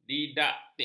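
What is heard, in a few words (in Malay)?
"didaktik", Malay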